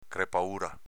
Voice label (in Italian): "crepaura", dialetto veneto; crepaùra s.f. screpolatura, crepa